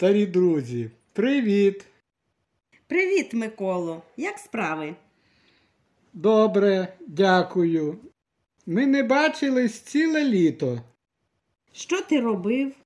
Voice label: Dialogue, Ukrainian